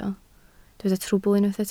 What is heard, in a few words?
"trwbl", Welsh